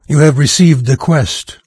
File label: new quest, NARRATOR, en-US; Fate The Traitor Soul